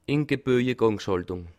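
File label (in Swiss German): "ingeboje", Bas Rhin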